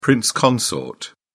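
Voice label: "prince consort", British English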